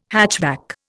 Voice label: "hatchback", Indian